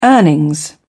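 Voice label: "earnings", British English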